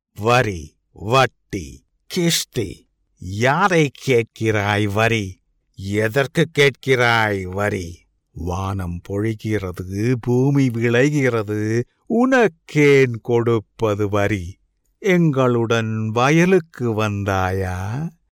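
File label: Tamil Sri Lanka male voice over, character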